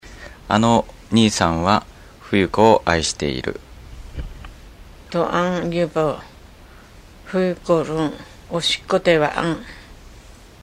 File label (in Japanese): あいする, Ainu; mukawa